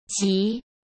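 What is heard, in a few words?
"及", Mandarin Chinese; chinese flashcards; hsk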